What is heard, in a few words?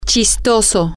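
"funny", female, Spanish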